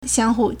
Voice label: "xiang1hu4", Chinese